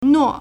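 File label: "nuo4", Mandarin Chinese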